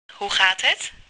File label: Dutch Greet